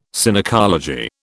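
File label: "Synecology", British English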